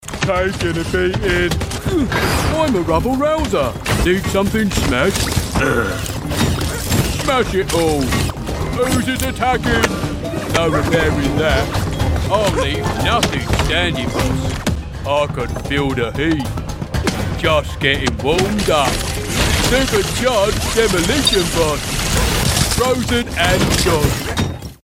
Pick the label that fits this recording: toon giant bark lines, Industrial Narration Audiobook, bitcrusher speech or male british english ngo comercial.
toon giant bark lines